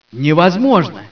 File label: "improbable", scientist